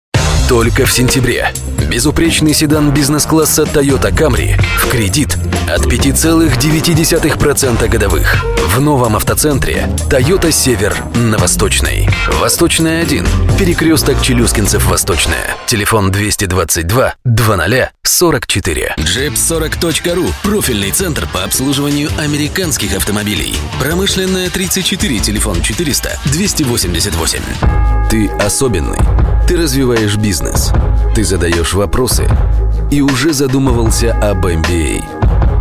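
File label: male voice, Russian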